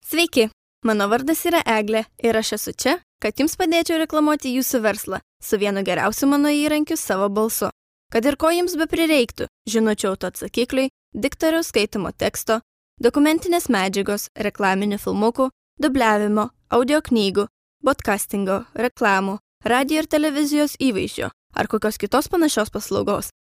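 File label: Lithuanian narration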